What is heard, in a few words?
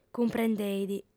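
"cumprendeit", female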